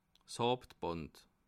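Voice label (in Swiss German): "hauptband", Bas Rhin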